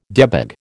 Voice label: "debag", American